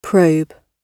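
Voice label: "probe", British English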